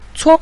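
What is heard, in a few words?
"cuo", Mandarin; 揉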